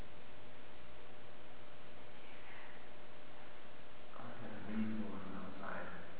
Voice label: whisper coming from hallway above boiler room